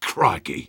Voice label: negative vocalization; Sniper